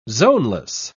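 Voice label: "zoneless", British English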